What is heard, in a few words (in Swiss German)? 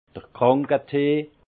"kranketee", Alsace; vin; tisane